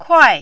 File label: "kuai4", mandarin